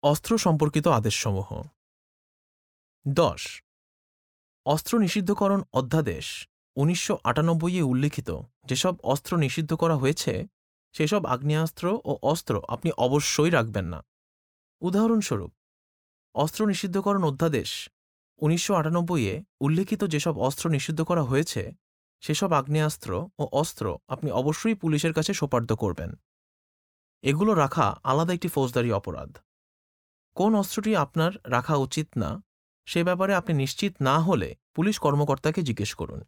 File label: bengali; orders about weapons